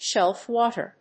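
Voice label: "shelf water", English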